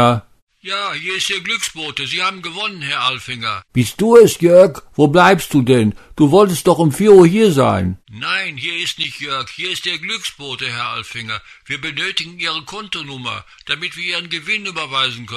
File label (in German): anruf taub